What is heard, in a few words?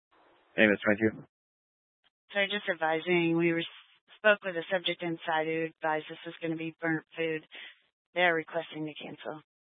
Fire Department Dispatch, scanner; Grand Junction